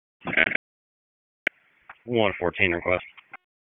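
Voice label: Police Southwest District Disp, radio; Raleigh; wake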